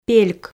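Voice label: "пельк", Komi, female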